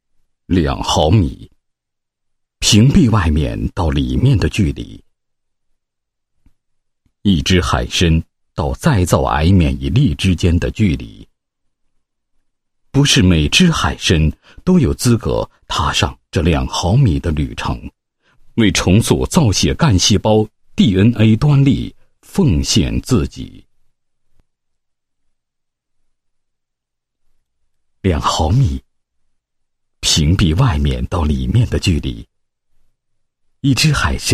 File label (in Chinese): 男 宣传广告; 大佑生宝 首款海洋癌免疫力再生剂